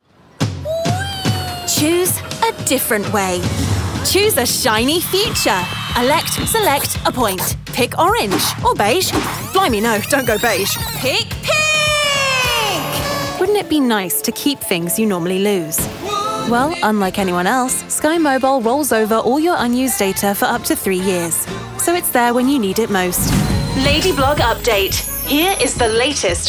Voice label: Commercial